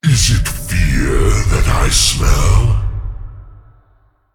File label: Male Demon Bark; Diablo